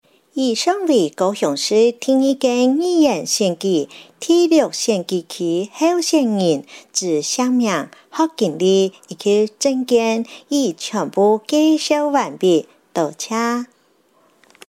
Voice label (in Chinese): 客語; 第六選區